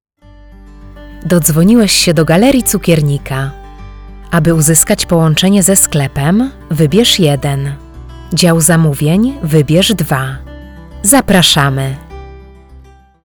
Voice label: Female Polish Voice IVR